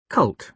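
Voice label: "cult", British English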